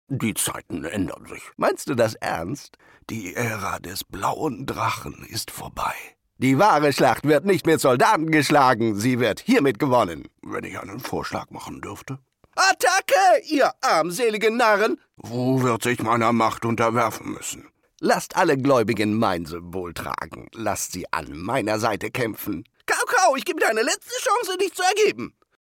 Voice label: male german gaming compilation

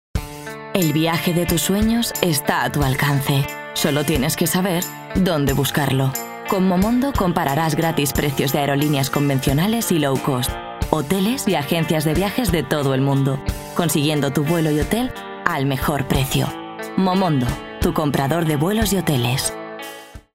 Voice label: female, Spanish Castilian TV commercial; momondo

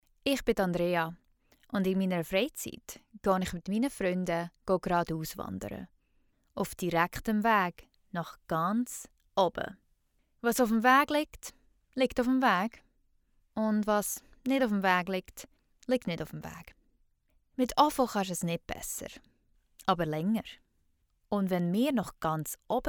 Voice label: female swiss german commercial compilation

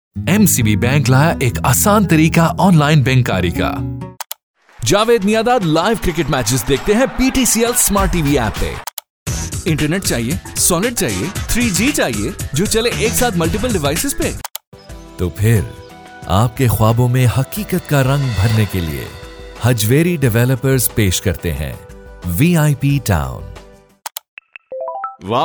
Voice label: male pakistani urdu compilation; english canadian

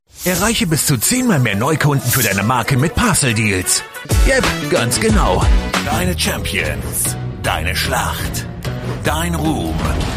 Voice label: male german compilation; showreel

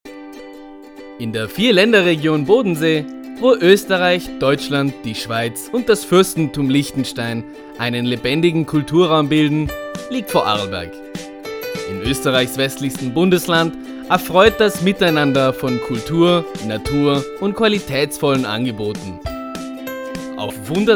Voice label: male austrian travel documentary; english irish

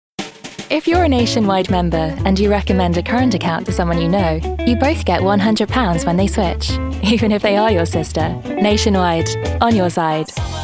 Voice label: female english commercial compilation; southern and northern england

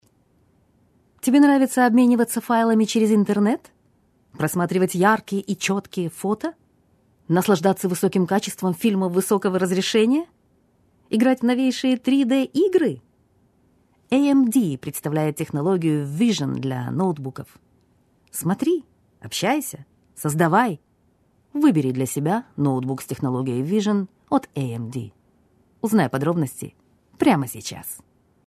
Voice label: female russian and ukrainian russian commercial

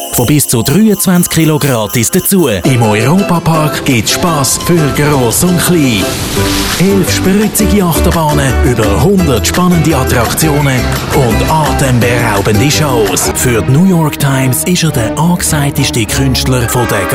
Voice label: male german swiss german compilation

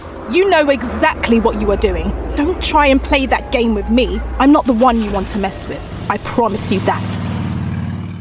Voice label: female English drama

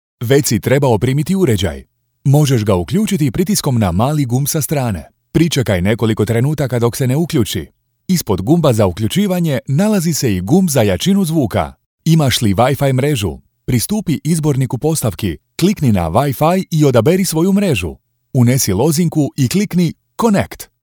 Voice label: male croatian e-learning

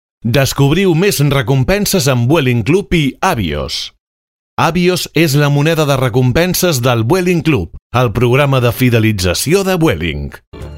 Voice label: male catalan commercial compilation

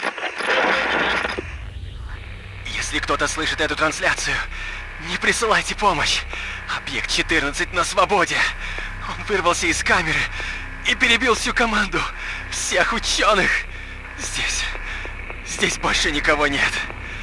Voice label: male russian radio character

